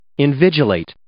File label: "invigilate", English